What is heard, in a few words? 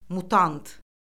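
"mutant", Azerbaijani; Orfoqrafiya Lüğəti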